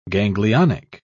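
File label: "ganglionic", English, British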